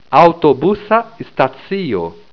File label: "busstac", Esperanto